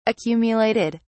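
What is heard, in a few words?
"accumulated", British English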